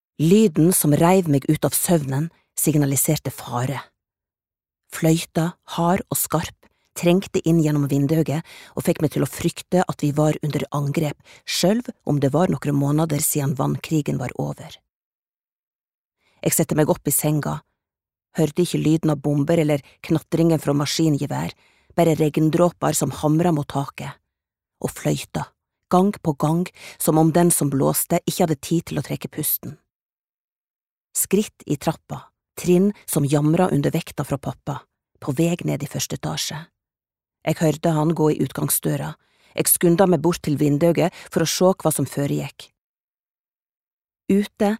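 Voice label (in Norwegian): no lydbok, Norwegian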